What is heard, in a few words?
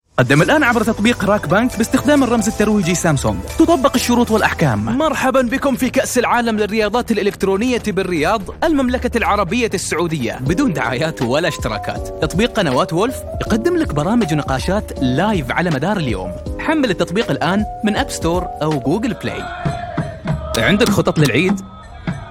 Khaliji